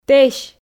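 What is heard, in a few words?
"тэсь", Komi, female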